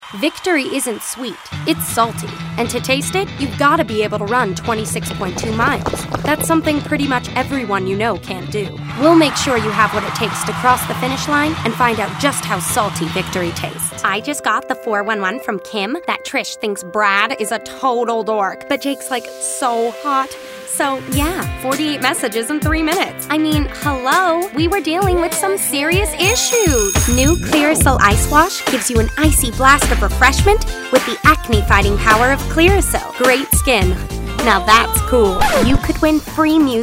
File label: Commericals